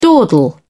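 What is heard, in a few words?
"dawdle", English, British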